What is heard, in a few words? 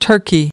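"turkey", English word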